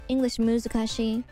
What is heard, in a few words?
english muzukashi; IRyS JP